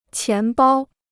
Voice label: "钱包", Chinese